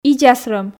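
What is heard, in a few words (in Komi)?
"идзас рӧм", Komi, female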